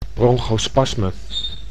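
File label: "bronchospasme", Dutch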